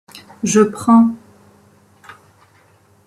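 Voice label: "je prends", French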